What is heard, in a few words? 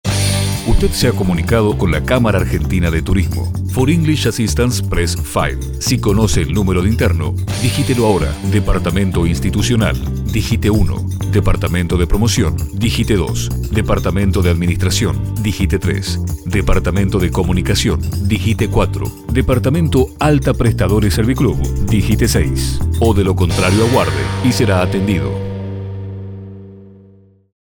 IVR